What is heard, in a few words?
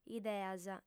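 "ideas", Sardinian, female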